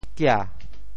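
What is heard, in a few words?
"kia2", Teochew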